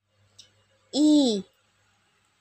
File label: Suara i, Aksara Swara, Basa Jawa